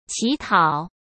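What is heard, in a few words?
"乞讨", Mandarin Chinese; hsk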